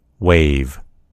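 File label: "wave", American English; gcompris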